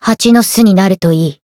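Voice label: Voice limit break Japanese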